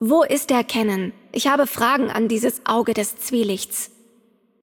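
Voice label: German game outro; de DE; VO; bloom minigame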